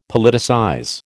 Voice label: "Politicize", American

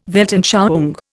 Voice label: "Weltanschauung", Indian

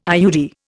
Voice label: "IUD", Indian

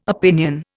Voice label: "opinion", Indian

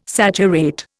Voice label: "saturate", Indian

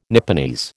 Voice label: "Nipponese", American

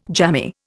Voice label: "jammy", Indian

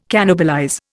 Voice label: "cannibalize", Indian

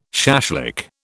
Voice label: "shashlik", British

